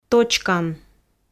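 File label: "точкан", Komi, female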